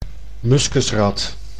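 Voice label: "muskusrat", Dutch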